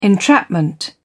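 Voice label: "entrapment", English, British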